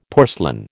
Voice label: "porcelain", American